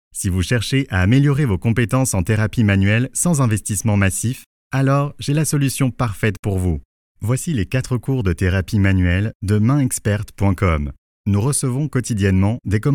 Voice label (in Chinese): 法语男, 外语, 小语种, 成熟